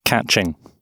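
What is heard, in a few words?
"catching", British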